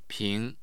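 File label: "ping", Mandarin Chinese, tone 2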